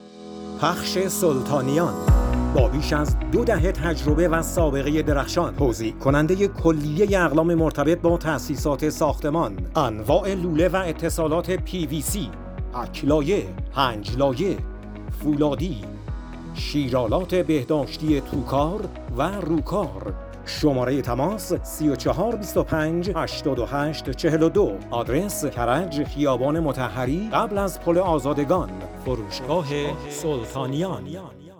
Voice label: narrator